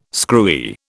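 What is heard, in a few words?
"screwy", British English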